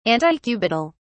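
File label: "antecubital", British English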